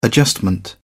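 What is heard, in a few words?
"adjustment", British English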